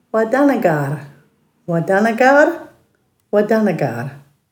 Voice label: "wadhangarr", Dhurga